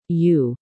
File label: "U", female